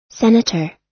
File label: "senator", us